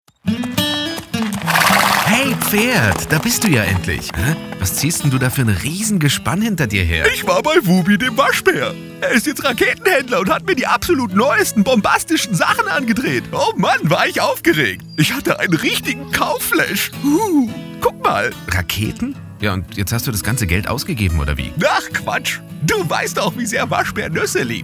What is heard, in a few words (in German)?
HÖRSPIEL kleine Geschichte